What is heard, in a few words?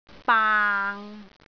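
"baang6", Cantonese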